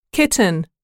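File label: "kitten", English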